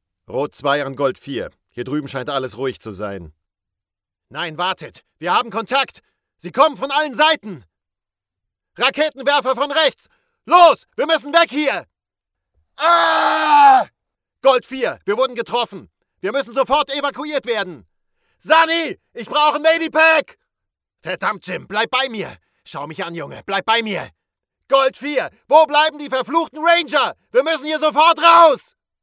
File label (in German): Erzähler